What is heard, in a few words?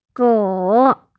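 "gou3", Mandarin Chinese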